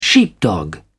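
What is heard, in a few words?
"sheepdog", British English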